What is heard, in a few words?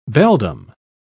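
"beldam", British English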